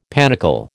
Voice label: "panicle", American